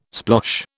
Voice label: "splosh", British